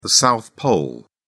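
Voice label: "south pole", English, British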